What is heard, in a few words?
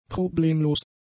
"problemlos", German; adjectives